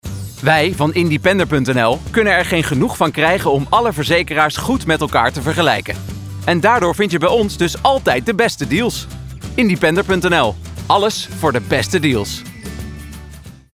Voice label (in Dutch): Selling Up Vrolijk